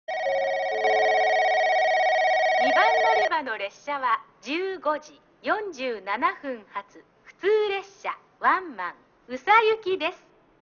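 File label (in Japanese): 2番のりば停車中案内放送, 中津駅; 普通ワンマン 宇佐行き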